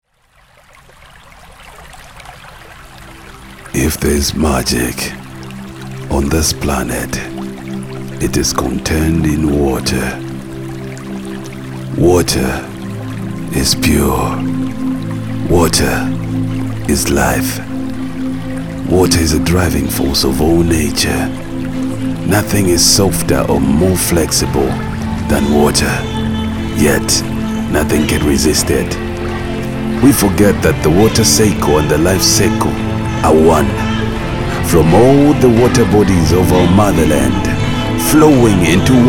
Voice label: AD, voice-overs commercial ads; VATRA